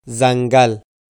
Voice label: "dzungul", pashto; pashto-grammar